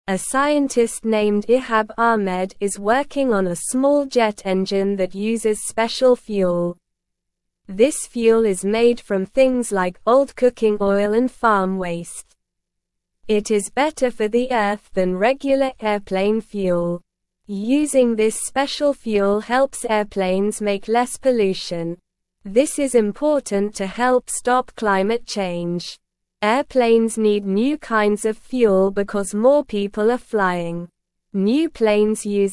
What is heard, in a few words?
English Beginner SLOW Reading; Making Planes Greener with Special Earth Friendly Fuel